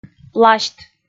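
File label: "пласьт", Komi, female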